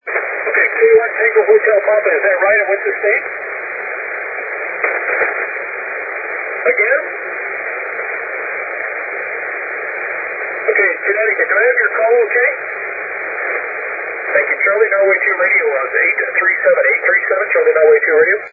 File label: 80m SSB; CN2R; SSB-SP; These guys were just booming in during the entire contest.; ARRL SSB contest; FT-1000d